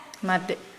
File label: "lookat", Nukuoro